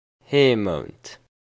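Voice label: "Heemount", Luxembourgish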